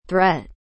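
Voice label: "threat", English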